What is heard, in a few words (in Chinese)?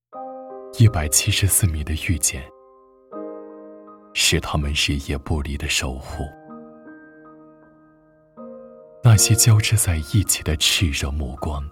走心旁白